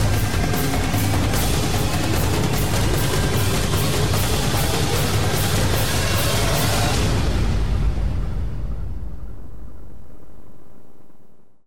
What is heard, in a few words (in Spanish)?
spot Marcha Radio